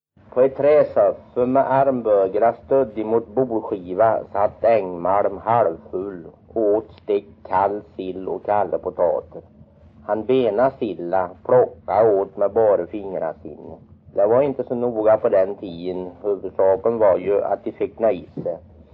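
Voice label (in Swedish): Kroppa Värmland, svenska sagor dialekter; Folkminnen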